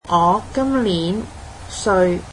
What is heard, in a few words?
cantonese Im thirty three years old